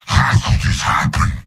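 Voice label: jeers, robot; heavy; mvm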